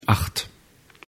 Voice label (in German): "acht", d